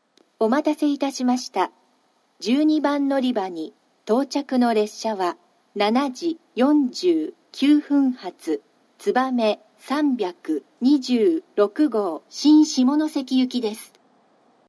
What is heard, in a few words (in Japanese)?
12番のりば接近放送, 新幹線ホーム; 新鳥栖; つばめ326号 新下関行き